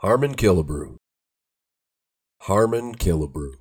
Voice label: "harmon killebrew", Minnesota pronunciation; HARM-ihn KILL-a-broo